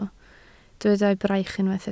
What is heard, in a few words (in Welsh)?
"braich", Welsh